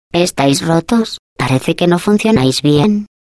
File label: GLaDOS taunt robot arm ripple, es